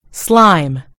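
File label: "slime", American English; gcompris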